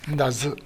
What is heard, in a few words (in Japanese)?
"ndazu", minami yamagata